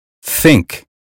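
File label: "think", American English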